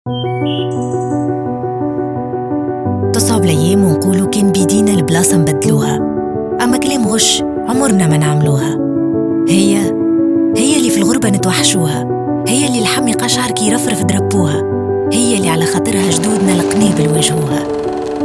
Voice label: TOUNSI